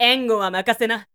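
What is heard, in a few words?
"engohamakasena", Japanese, woman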